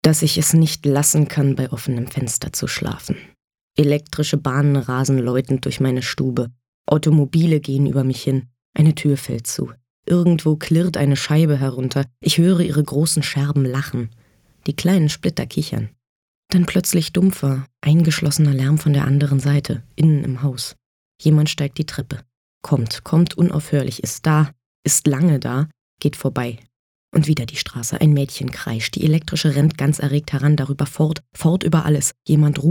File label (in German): Hoerbuch